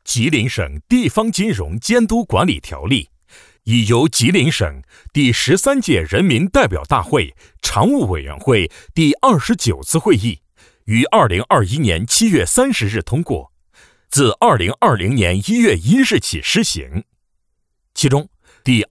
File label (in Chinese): 课件